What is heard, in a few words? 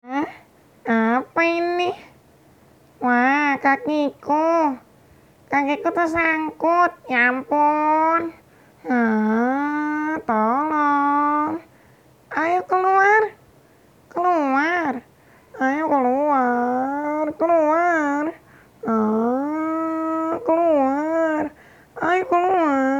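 character Indonesian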